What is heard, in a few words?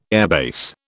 "airbase", British